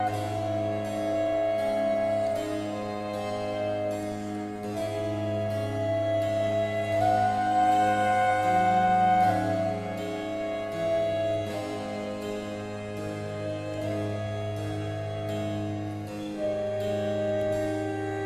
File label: american baroque spoken